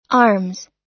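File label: "arms", American English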